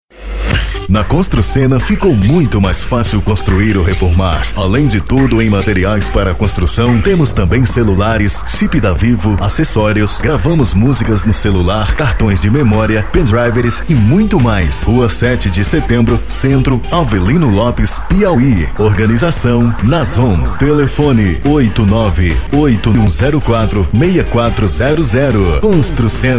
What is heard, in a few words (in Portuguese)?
comercial; construssena